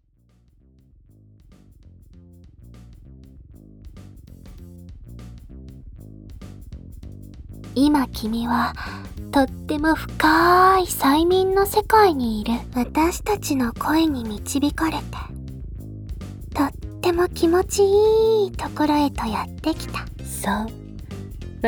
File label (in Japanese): エロパート BGMあり, マルチバイノーラル催眠ボイス; 04 エロパート1サンプル